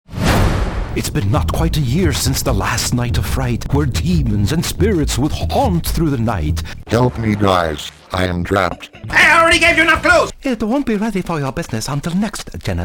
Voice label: Animation English